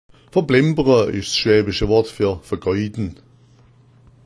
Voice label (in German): "verblembera", schwaebisch